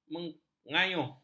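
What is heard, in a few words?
"mengayuh", Malay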